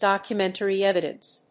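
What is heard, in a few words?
"Documentary evidence", English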